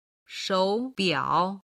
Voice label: "shou3biao3", chinese